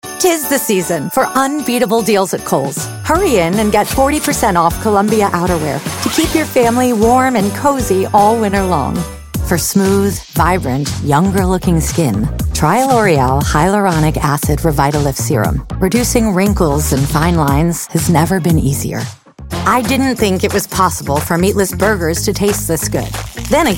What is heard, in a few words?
english american commercial; classically trained actor